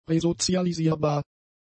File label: "resozialisierbar", German; adjectives